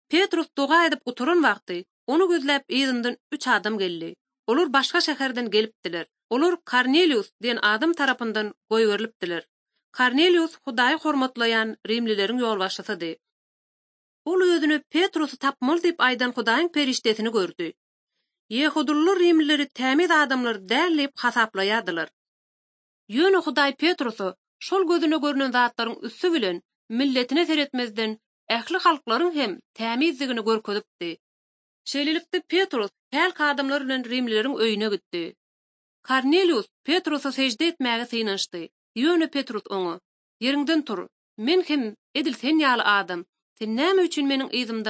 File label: turkmen, bible translation drama